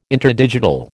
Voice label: "interdigital", American

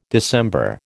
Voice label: "December", American

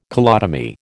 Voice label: "colotomy", American

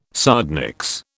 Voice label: "sardonyx", British

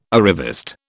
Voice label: "arriviste", British

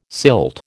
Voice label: "silt", American

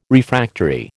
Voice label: "Refractory", American

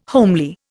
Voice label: "homely", Indian

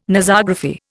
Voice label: "Nosography", Indian

